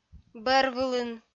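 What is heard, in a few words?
"бӧрвылын", Komi-Zyrian, female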